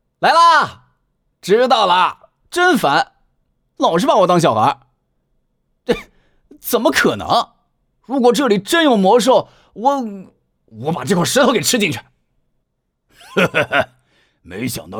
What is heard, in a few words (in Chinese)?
男, 角色, 活力, 霸气, 阴险, 沉稳, 老年沧桑